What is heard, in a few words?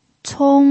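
"cong" first tone, Mandarin Chinese pinyin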